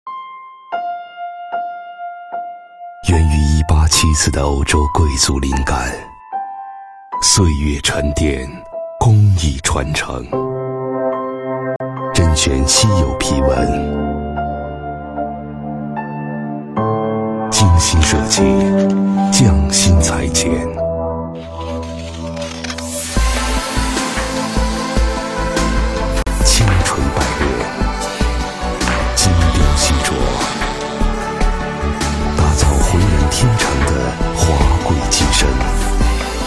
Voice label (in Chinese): 男 品质广告 手机广告 浑厚低沉